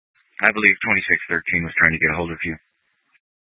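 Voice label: Incident Tac 2, Scanner audio recording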